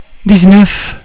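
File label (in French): "dix-neuf", French; cat numeration doigts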